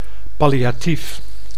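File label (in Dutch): "palliatief", Dutch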